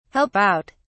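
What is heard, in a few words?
"help out", British English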